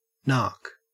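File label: "nark", English, Australian accent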